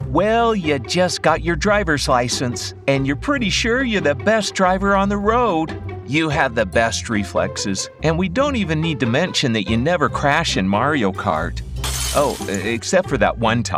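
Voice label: E-Learning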